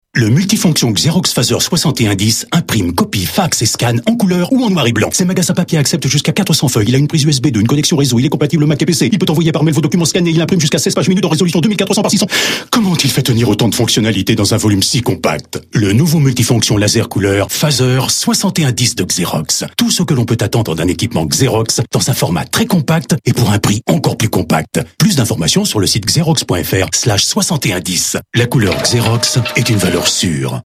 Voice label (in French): Pub radio; Xerox